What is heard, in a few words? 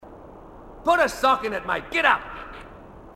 marine aussie; h2dialogue